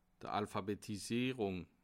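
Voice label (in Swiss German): "alphabetisierung", Bas Rhin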